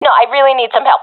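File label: request help, professional female; vo; Counter Strike Model Pack; CS:GO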